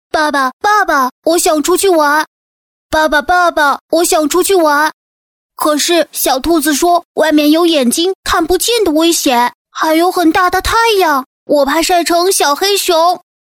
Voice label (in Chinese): 女, 卡通 小熊